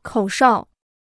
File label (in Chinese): "口哨", Chinese